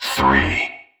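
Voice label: "three", male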